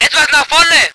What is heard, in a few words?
voice ger vehicle